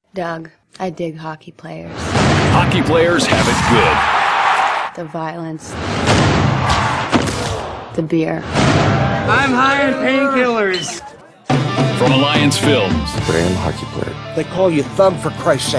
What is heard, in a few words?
english canadian movie trailers